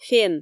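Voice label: "фен" Komi, female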